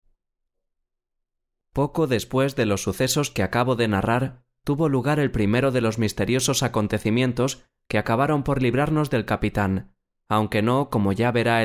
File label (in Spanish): Voz natural; Intelivoz; La Isla el Tesoro